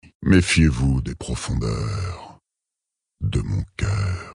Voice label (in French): Male Flirt; Tauren Haut Roc; Profondeur Coeur